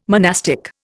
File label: "monastic", Indian